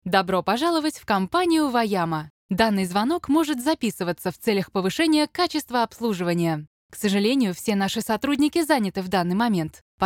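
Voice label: Russian, female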